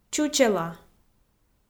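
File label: "чучела", Komi, female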